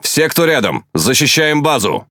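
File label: Russian Speech Quick Commands Ally Base; World Of Warships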